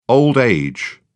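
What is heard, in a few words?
"old age", British English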